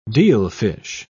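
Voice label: "dealfish", British English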